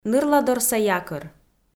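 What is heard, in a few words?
"нырладорса якӧр", Komi, female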